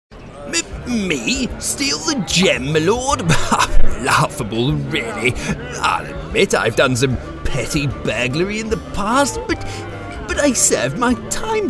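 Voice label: Character, with SFX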